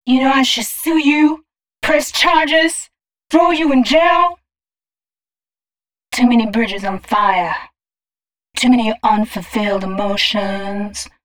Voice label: female d136, spoken hooks; Mutekki Media; Dance Ultra Voice VOL-1

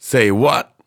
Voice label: Vocals

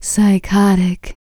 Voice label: FEM SPOKEN; ILIO; Vocal Planet VOL-4; R&B & Dance

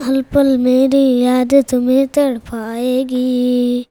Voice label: CHILD VX, Indian Traditions; USB Soundscan vol.45; AKAI

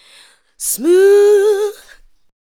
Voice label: ADLIBS 90; Ueberschall; Jam Box

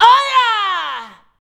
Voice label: "oh ja", shouts; OHJAA; Best Service; Hyper Dance

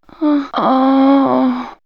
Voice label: Men Women Animal Magic, vox; X-Static Goldmine

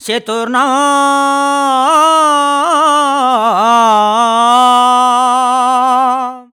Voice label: MAN VX, Indian Traditions

